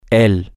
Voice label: him, spanish, M